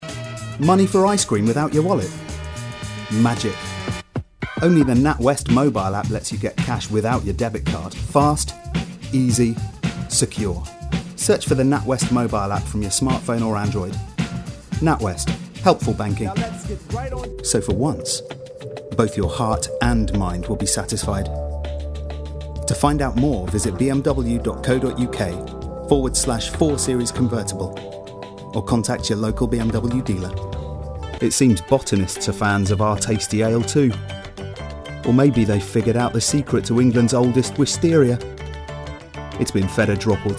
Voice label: Commercial